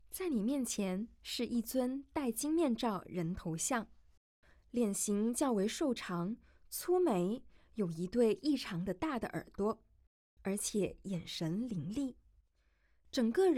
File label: Mandarin, audio-guide; gazing at sanxingdui